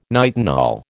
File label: "nitinol", American